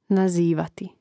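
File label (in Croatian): "nazivati", Hrvatski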